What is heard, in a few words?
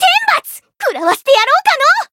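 encounter, jp; otoha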